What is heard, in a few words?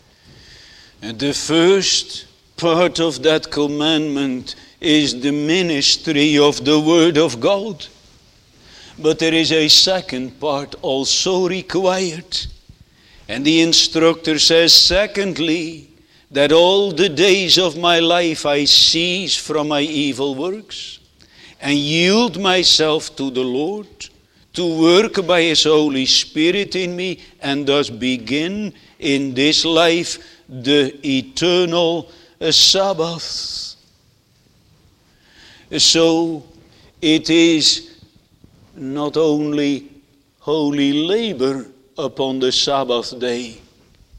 Sermon Continued; Netherlands Reformed Congregation, St. Catharines, ON